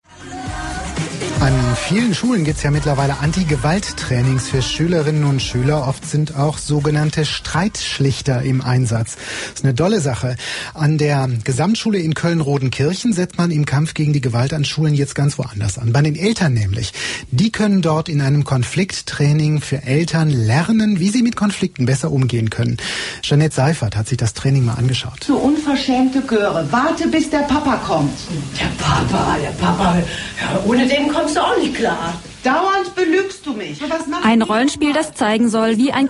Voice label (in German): Radio; WDR2